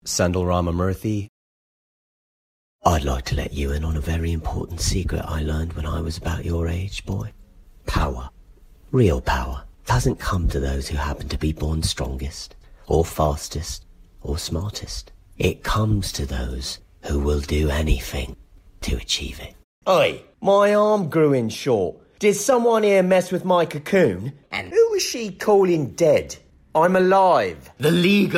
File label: animation; Innovative LA